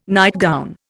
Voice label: "nightgown", Indian

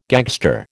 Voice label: "gagster", American

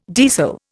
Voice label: "deasil", Indian

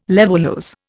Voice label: "levulose", Indian

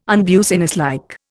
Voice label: "unbusinesslike", Indian

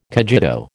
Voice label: "cogito", American

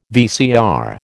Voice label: "VCR", American

